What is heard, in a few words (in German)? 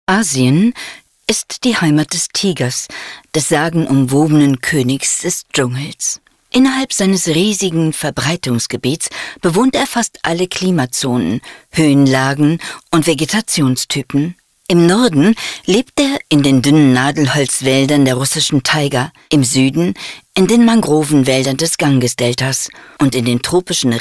Doku Asien